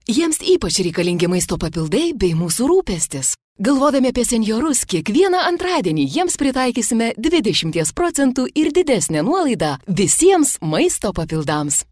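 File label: Lithuanian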